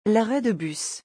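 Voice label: "l arret de bus", French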